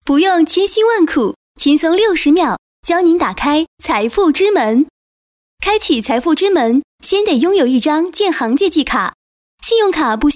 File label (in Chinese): 女 专题, 国语女声配音; 建行财富之门